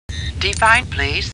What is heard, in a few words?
Star Trek Computer Voice; StarTrekLCAR